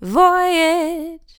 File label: "Voyage", Female Vocals, Dry